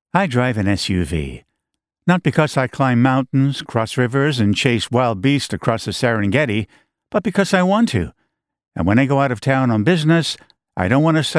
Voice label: Rent a Car CM